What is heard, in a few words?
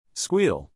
"squeal", English, neural; animal sounds in english; Pigs; high-pitched whine; Stephen